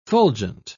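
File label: "fulgent", British English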